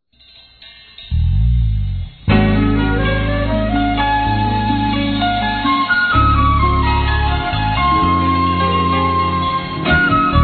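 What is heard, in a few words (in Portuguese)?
Romant. suave, feminino; Telemensagens; Românticas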